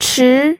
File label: "chi2", pinyin